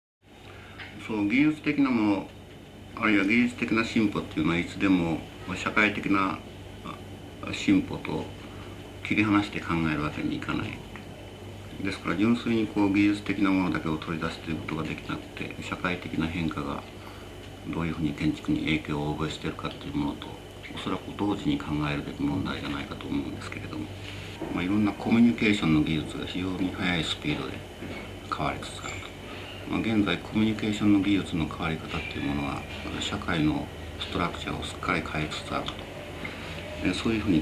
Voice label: architects Spoken Word, mono; tange; city of the future